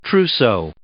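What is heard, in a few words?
"trousseau", English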